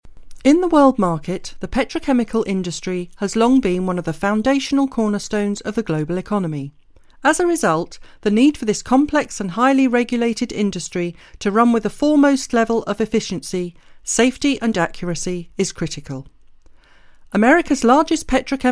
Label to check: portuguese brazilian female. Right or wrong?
Wrong